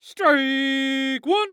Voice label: Human Voice Male Young Man Baseball Umpire Strike One; Sports